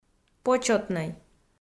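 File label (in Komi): "почётнӧй" Komi, female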